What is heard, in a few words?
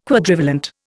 "Quadrivalent", Indian